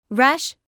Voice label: "rash", medical English